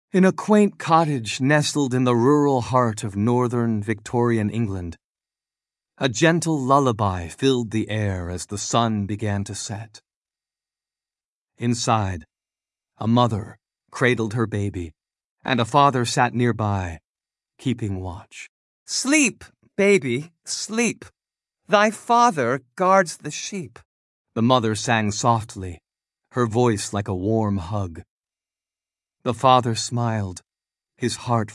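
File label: female British story, classic rhymes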